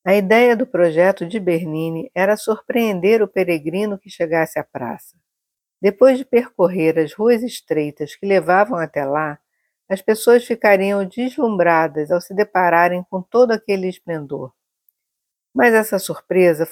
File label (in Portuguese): Audioguia resumido; Praca; Mussolini